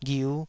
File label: "ghiu5", Taiwanese syllable